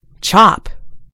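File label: "chop", British English; gcompris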